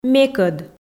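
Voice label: "мекӧд", Komi, female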